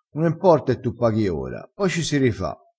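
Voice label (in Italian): "rifassi", vocabolario dialettale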